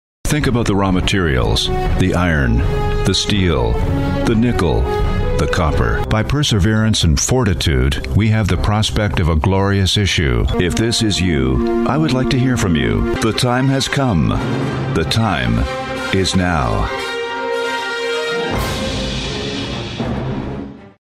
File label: Narration Industrial